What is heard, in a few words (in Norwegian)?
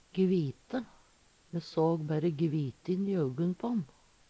gvite, Numedalsmål; Me såg bærre gvitin i augun på`n.